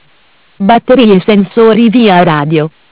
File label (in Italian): "Batteria Sensori WLS", MESSAGGI VOCALI; Bentel; BLE320; Audio BGS220